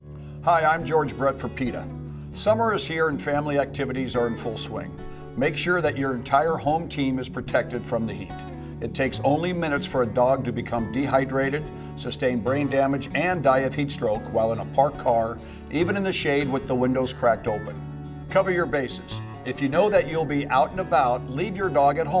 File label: too hot for spot radio psa; george brett